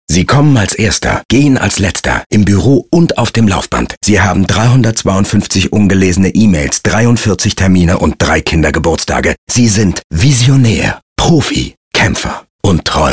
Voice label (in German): Funkspot; Audi; bunch sound; Berlin; Funkspotproduktion